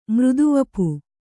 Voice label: "ಮೃದುವಪು", Kannada